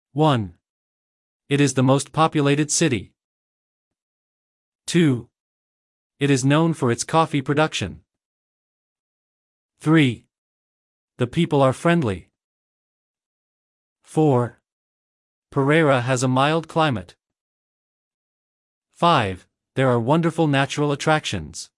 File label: dictation